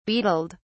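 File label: "beetled", en, uk British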